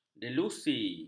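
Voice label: "delusi", Malay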